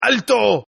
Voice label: Tough guy Stop Spanish, Tough Hispanic Male Saying; Exclamation